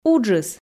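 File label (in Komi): "уджыс", Komi, female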